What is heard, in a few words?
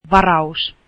reservation, finnish